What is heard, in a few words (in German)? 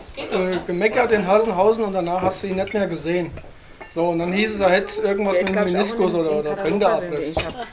giessen Freundesgespraech